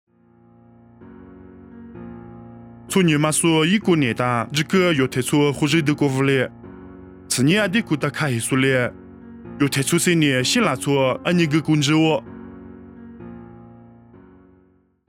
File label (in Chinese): 凉山彝语; 地中海以哥念; nuosu